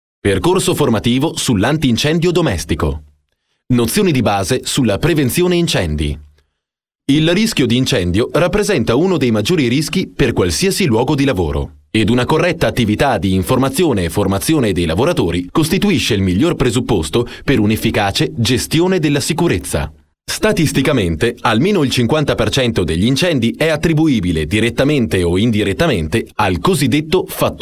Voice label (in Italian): documentari